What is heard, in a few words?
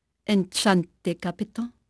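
French female; Pirates Of The Caribbean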